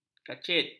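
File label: "kacit", Malay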